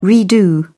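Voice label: "redo", English, British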